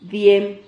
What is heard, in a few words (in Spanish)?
"diem", Silabas